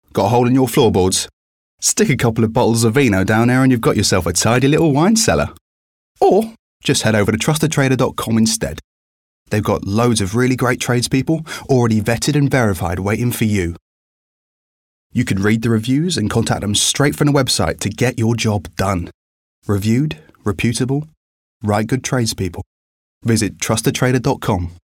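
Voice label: British English Commercial; GoLocalise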